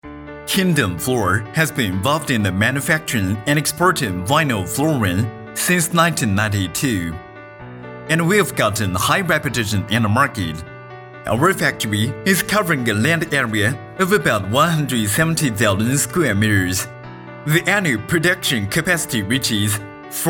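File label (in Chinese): 【男英文】产品介绍 年轻流畅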